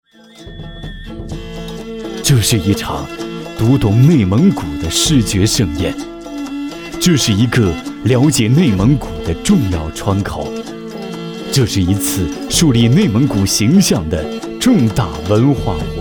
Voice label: 男 宣传 内蒙古印象

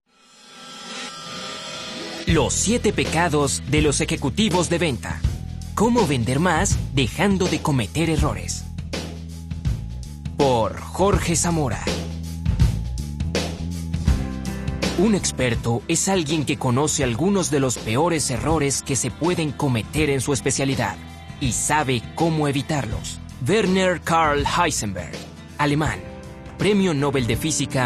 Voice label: 外籍 西语 男 audiobook, 外籍西语男

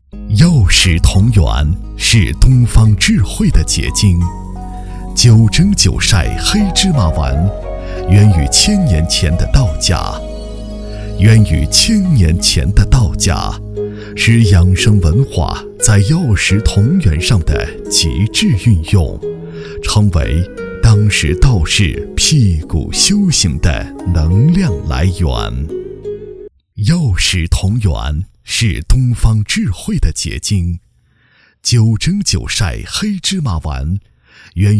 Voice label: 男 美食广告

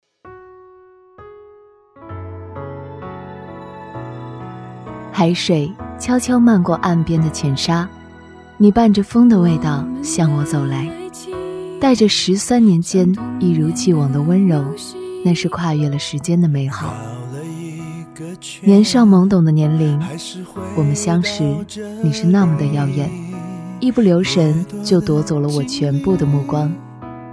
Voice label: 女 诗样音参考音

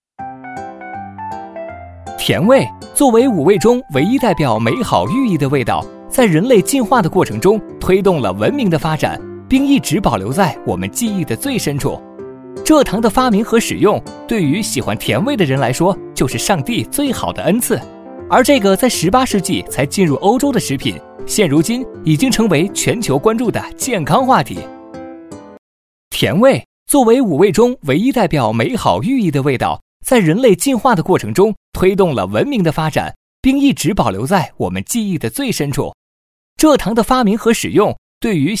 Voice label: 男 MG解说

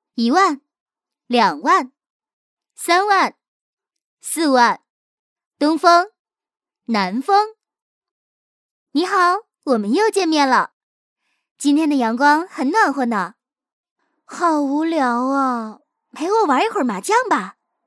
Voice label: 女 游戏 棋牌类 普通话版 麻将

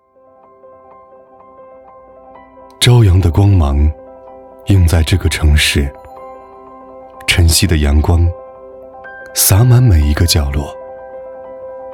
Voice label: 男 房地产 高端品质; 朝阳的光芒映在这个城市

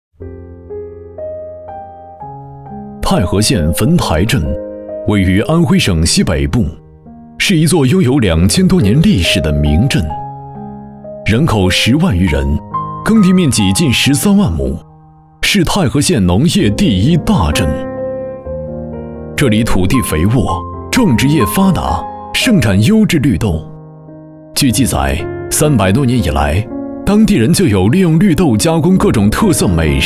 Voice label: 男 宣传 沉稳介绍; 太和县

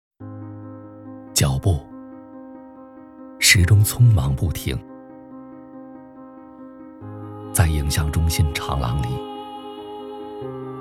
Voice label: 男 走心 护士节

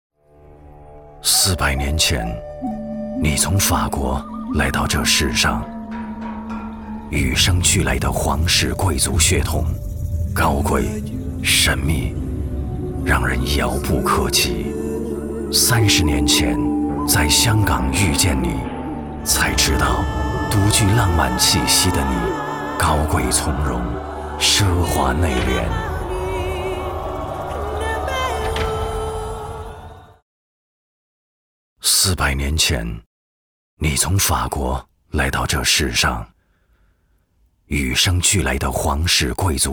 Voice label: 男, 品质广告, 珠宝广告 神秘风格

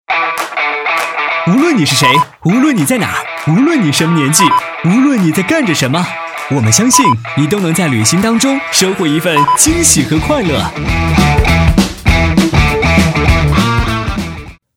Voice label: 男 广告 活力动感; 无论你是谁

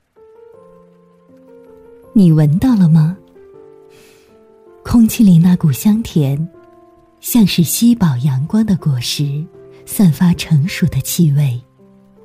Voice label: 女 专题 温柔专题

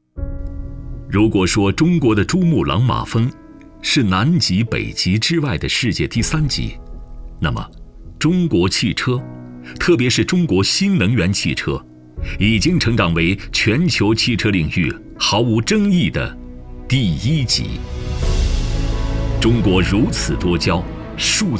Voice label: 男 宣传片; 启明医疗

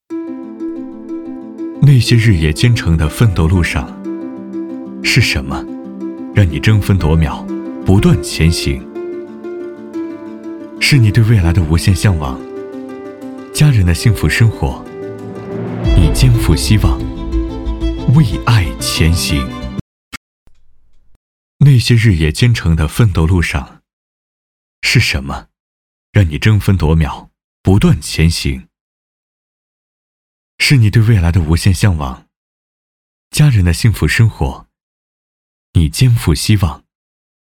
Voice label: 男 独白; 向往

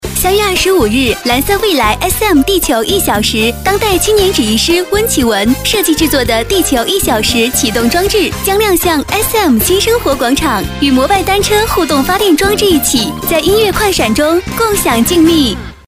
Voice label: 女 广告; 蓝色WE来

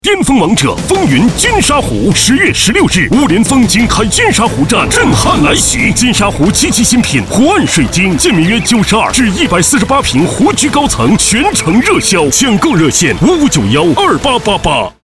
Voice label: 男 电台宣传; 风云金沙湖

